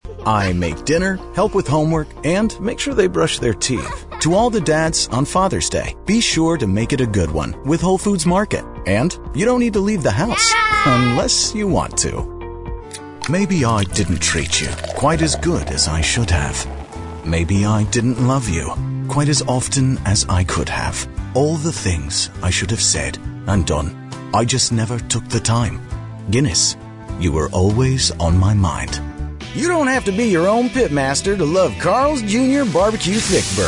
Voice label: Commercial, Easy going, warm, gravelly, relatable, and unique voice acting; Commercial Demo